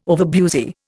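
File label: "overbusy", Indian English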